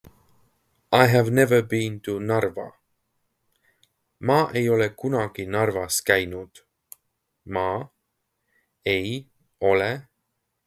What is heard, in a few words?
I have never been to Narva, Estonian phrasebook; the talking estonian phrasebook